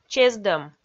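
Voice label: "чездӧм", Komi, female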